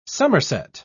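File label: "summerset", British English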